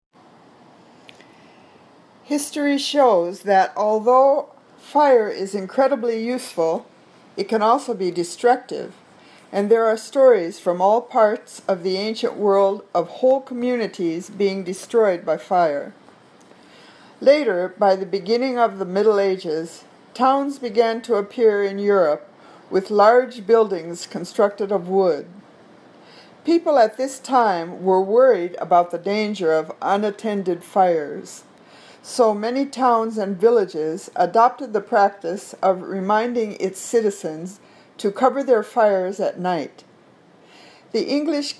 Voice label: Reading Drill